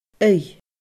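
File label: "aoigh", Scottish Gaelic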